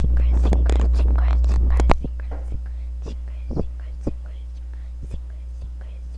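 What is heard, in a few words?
"singer", spoken word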